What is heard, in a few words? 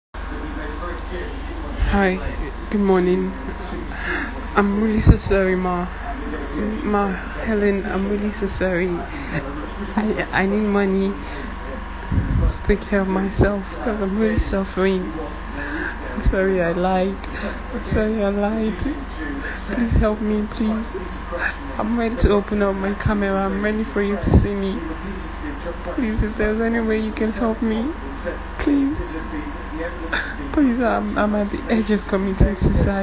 audio Message